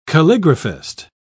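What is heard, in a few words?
"calligraphist", British English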